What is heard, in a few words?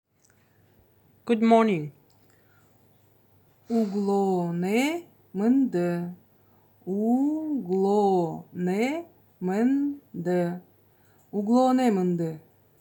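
Good morning, Buryat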